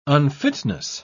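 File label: "unfitness", English, uk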